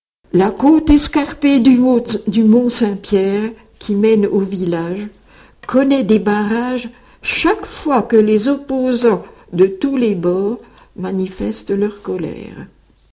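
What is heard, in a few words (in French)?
R phrase, French Dijon, female; [ʁ]; France : Dijon; II. Le système consonantique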